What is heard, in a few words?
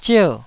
"zier4", Taiwanese